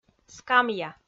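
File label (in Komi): "скамья", Komi, female; kpv audio dict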